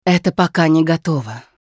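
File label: Hero Cooldowns Russian, Женщина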